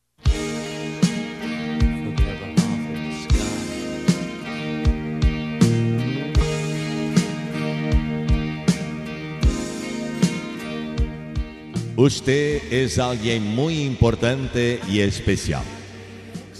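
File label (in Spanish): Embarazo Masculino, Espanhol; Variadas em Espanhol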